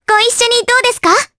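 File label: Vox Skill Japanese; Mirianne; kingsraid; jp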